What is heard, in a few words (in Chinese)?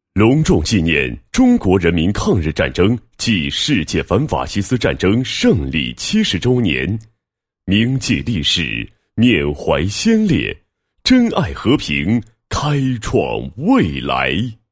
男 宣传广告 新闻频道节目宣传; 广播电视台新闻频道节目宣传